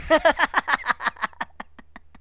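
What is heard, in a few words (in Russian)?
мужской голос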